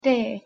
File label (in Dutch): "tee", Fins; thee-in-andere-talen